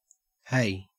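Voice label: "hey", Australian English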